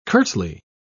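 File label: "curtly", British English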